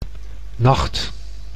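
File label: "nacht", Dutch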